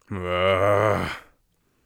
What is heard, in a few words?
irritated, Mage Voice Pack